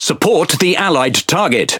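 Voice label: Pirate Speech Quick Commands Ally Ship, English pirate; World Of Warships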